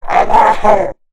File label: Zombie